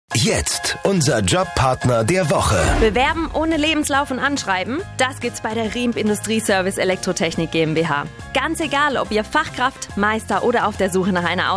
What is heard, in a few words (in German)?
Infomercial; Riempp; Job; Bewerben